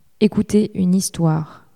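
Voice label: "ecouter une histoire", French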